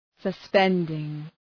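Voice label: "suspending", English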